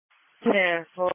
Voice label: Police Patrol 1 (Dispatch)

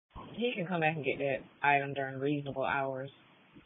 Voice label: Police Patrol 1 (Dispatch); New Bern Police Patrol 1 (Dispatch) (TG 200)

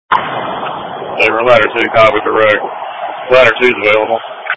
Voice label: Fire Tactical

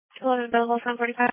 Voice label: Police Patrol 1 (Dispatch)

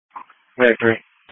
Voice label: EMS Dispatch

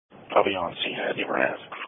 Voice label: Police Patrol 1 (Dispatch)

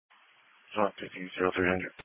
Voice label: Police Patrol 1 (Dispatch)

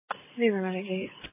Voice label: EMS Dispatch

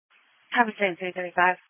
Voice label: EMS Dispatch

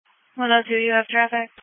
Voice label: Police Patrol 1 (Dispatch)

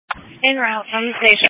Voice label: EMS Dispatch

